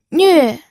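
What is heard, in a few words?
"nüe", fourth tone, pinyin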